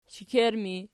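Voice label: shekerttu ergative, Archi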